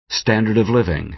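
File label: "standard of living", American English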